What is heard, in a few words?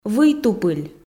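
"вый тупыль", Komi, female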